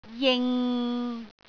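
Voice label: "jing6", Cantonese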